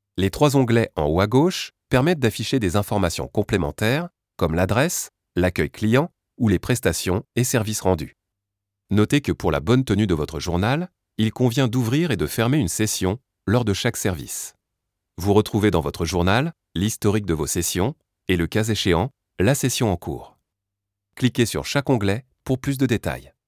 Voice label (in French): ELEARN Voix Off